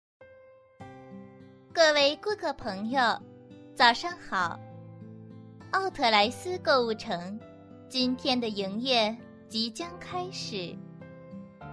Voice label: announce